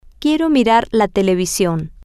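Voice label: I want to watch TV, Spanish, female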